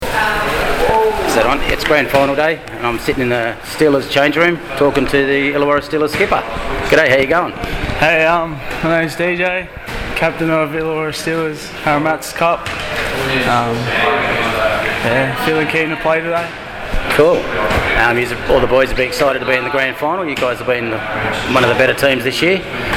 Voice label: interview; grand final steelers v bulldogs; 2011 hmc; 2011 nswrl